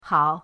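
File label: "hao", Mandarin pinyin, neutral tone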